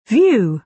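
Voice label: "view", British English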